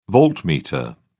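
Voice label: "voltmeter", British English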